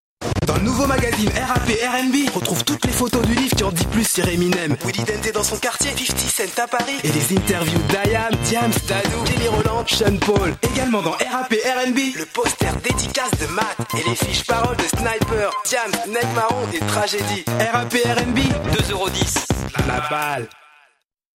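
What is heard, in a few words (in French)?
french male commercial; voice demos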